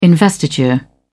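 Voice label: "investiture", British English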